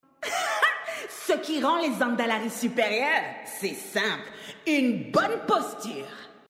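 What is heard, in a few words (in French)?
French Female Blague; Troll Zandalari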